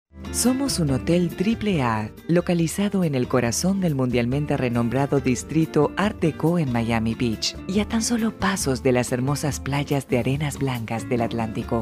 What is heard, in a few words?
female